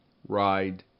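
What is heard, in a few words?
"ride", English; RhymeTest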